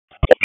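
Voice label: Wake Forest Police Dispatch, p25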